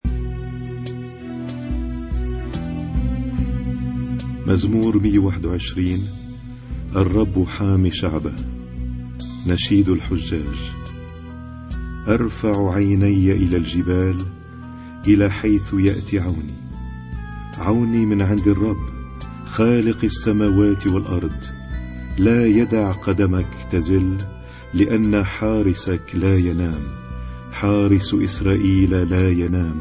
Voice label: Arabic Psalm Zaboor